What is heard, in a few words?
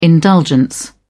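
"indulgence", British English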